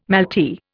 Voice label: "malty", Indian